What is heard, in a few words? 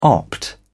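"opt", British English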